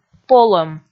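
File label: "полӧм", Komi, female; kpv audio dict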